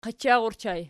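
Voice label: "qachar" ergative plural, Archi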